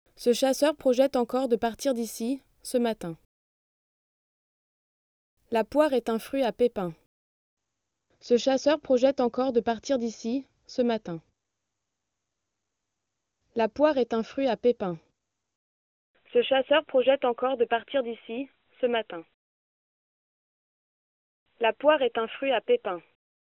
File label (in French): voix HD